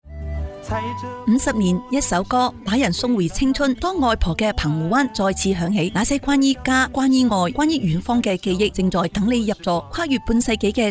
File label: Cantonese; Sing Tao Radio; Bay Area; Taiwan Campus Folk 50; US Tour; 星島日報; 校園民歌50年